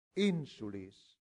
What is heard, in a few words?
"insulis", latin; santillana